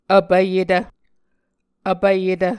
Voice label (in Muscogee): "vpvyetv", muscogee